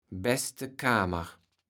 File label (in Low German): "beste Kamer", kaevels platt